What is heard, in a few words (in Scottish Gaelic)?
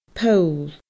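"poll", Scottish Gaelic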